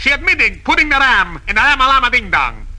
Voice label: shabudding a dingalong a dongalonga ding dong, Voice gibbrish